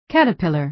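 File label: "caterpillar", American English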